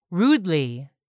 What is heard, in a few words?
"rudely", english; 3000 words